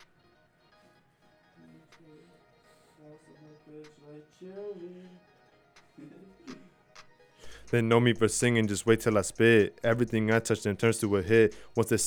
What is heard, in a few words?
recordin Mic Input; flstudio; Vocals